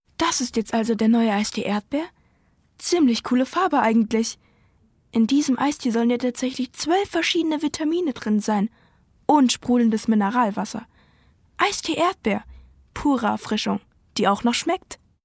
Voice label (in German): maedchen; Eistee